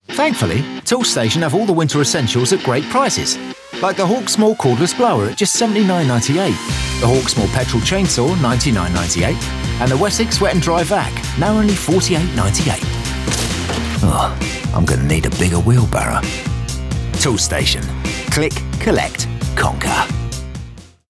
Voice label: Builder, Commercial, Bright, Upbeat, Character, Builder, RP ('Received Pronunciation'); Toolstation